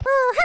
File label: weird lanky voice